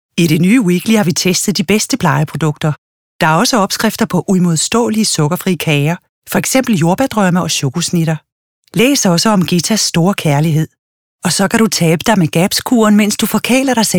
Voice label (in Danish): REKLAME